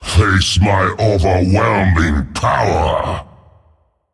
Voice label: Male Demon Attack; PrinceMalchezaar